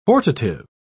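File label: "hortative", British English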